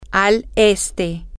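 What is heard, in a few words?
to The East, Spanish, female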